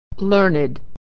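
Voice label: "learned", American English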